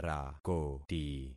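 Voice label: "rakoti", concatenated syllables; Affixing experiment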